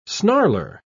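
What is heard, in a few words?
"snarler", British English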